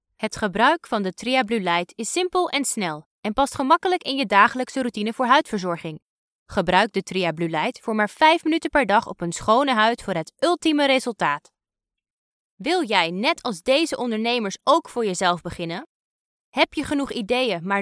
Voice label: Dutch

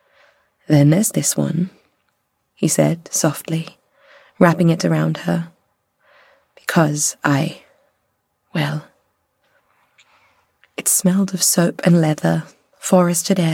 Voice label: Audiobook, female

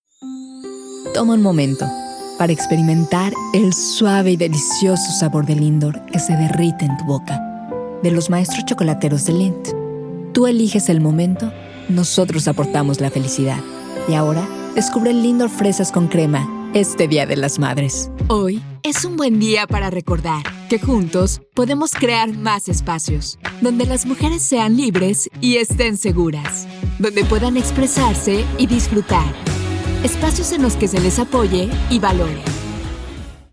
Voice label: Spanish Latin

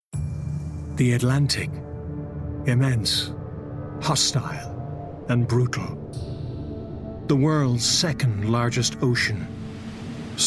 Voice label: Narration; 20s-30s; Male; Irish

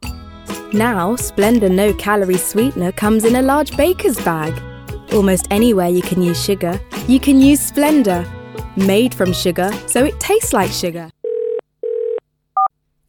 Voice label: Commercial

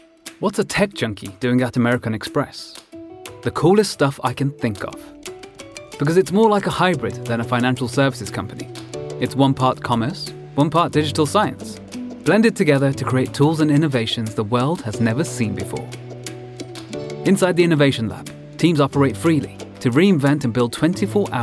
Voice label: English accent

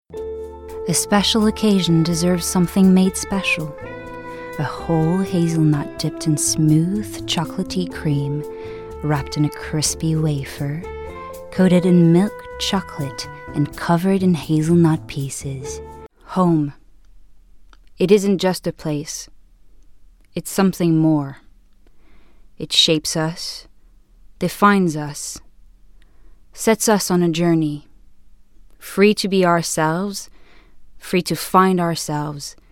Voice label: English

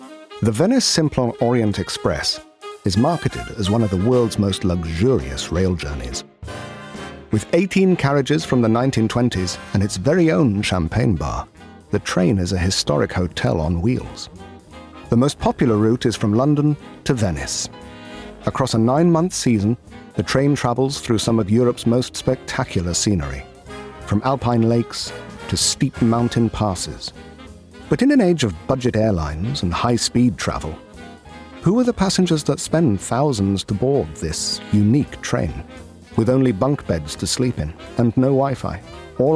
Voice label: Narration

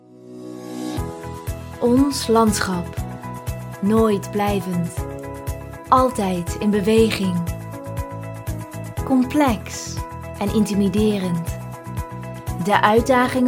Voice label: Dutch; Dutch, European, Male, Home Studio, 20s-30s

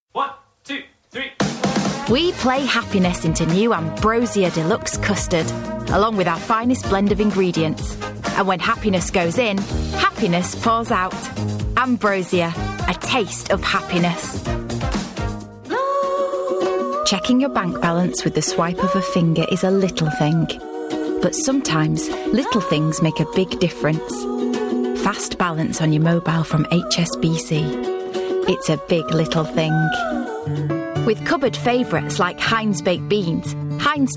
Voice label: Yorkshire

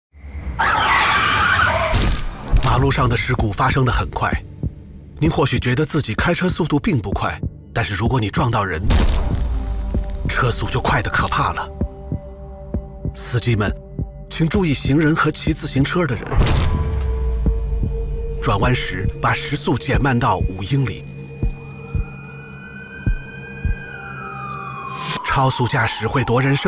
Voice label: Speeding Ruins Lives Mandarin; Chinese-Mandarin, Male, 30s-50s

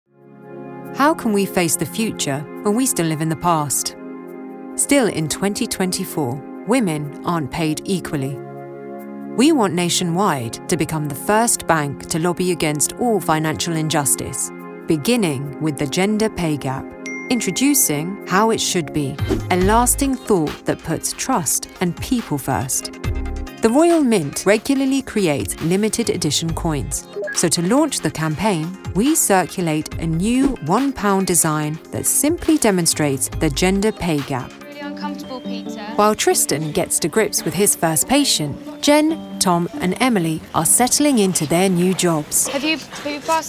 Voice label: narrative

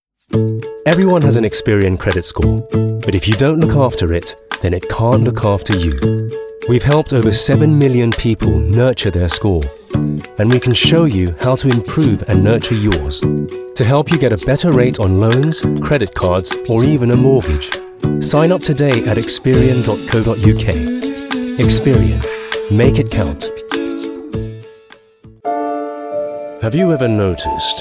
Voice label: Commercial

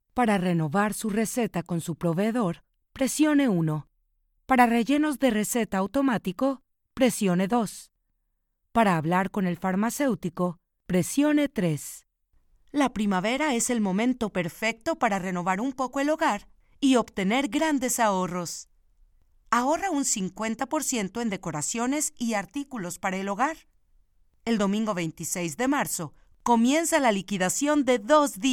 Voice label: Read

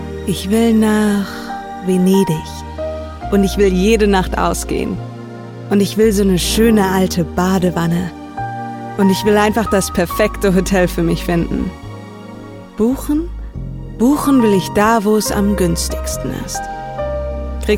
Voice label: German

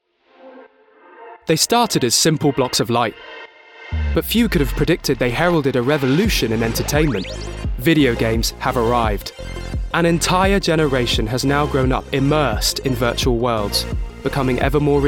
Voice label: Narration

